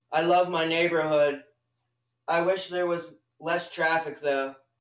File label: noisy reference